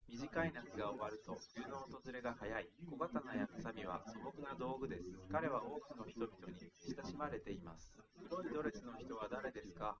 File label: speaker rec; forschungsprojekt trennung